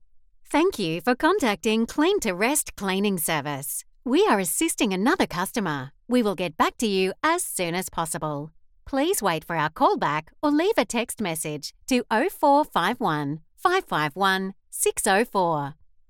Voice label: IVR